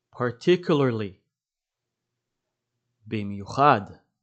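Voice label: "particularly" English, "במיוחד" Hebrew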